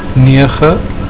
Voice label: "nege", Afrikaans

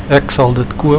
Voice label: "ek sal dit koop", Afrikaans

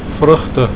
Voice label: "vrugte", Afrikaans

